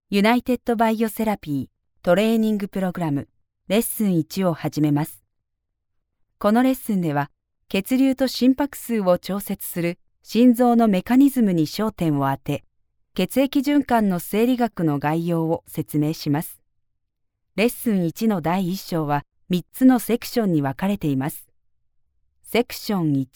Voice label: female japanese voice over narrative